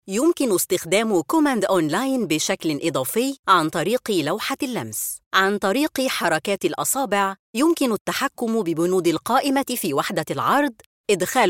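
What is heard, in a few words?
Arabic Egyptian, female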